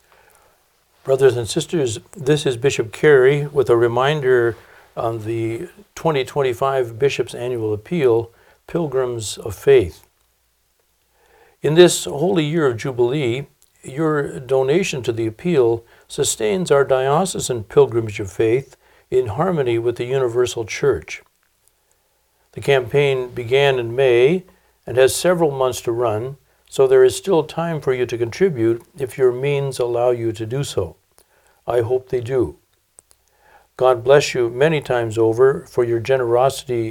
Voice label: English; BAA 2025 Follow-up